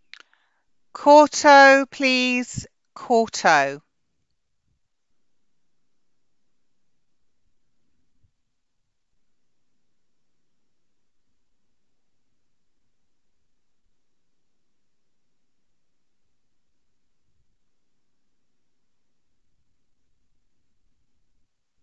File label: Voice over for Country Pleasure